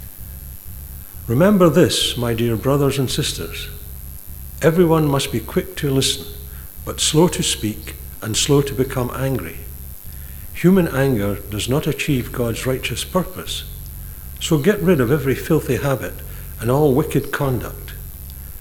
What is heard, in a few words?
read